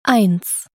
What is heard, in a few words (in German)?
"eins" deutsch